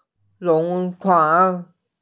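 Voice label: "ship", Mandarin; lun2chuan2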